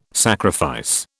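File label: "sacrifice", British English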